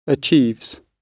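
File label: "achieves", British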